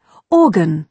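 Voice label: "organ", British English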